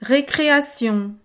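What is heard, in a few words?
"recreation", French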